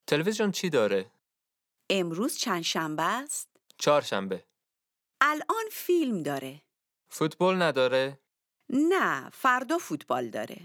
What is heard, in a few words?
Dialogue lesson Farsi